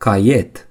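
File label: "notebook", Romanian; gcompris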